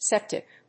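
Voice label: "septic", English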